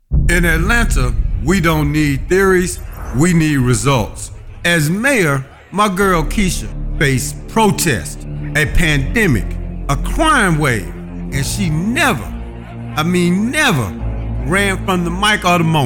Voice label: Voice Actor